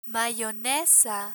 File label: "mayonesa", Spanish; learn spanish